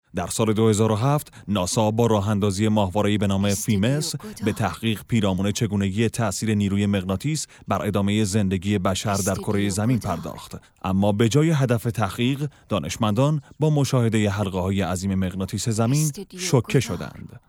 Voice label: documentary